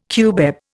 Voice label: "cubeb", Indian

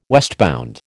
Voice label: "westbound", American

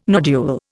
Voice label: "nodule", Indian

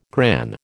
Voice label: "gran", American

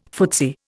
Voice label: "footsie", Indian

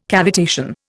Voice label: "cavitation", Indian

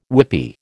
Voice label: "whippy", American

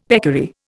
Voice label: "peccary", Indian; Dictionary

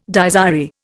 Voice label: "Dies irae", Indian

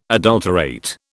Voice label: "adulterate", British

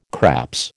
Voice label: "craps", American

